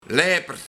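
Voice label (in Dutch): "Leiper", Luik; 1. Elastiek; 2. Snelbinder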